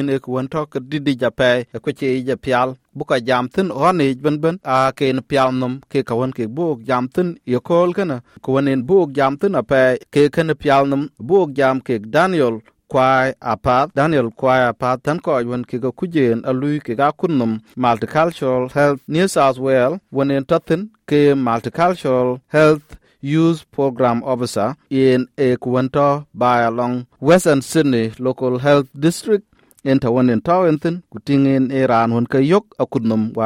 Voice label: dinka, podcast; Yeŋo kënë ya kuɔ̈ɔ̈ny ee piälnhom yic tɛn riëënythii; SBS Dinka